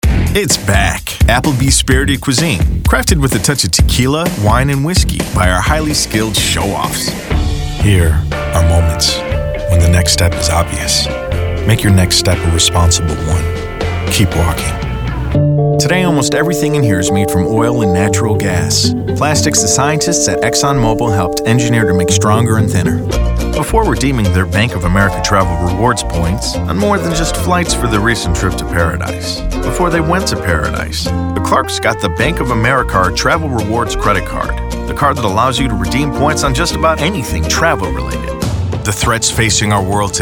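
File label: commercial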